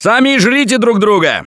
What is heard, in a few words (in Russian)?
boomer jar, left4dead2 russian, Survivor Voice; Gambler